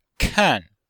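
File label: "kan4", Chinese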